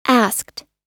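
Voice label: "asked", American English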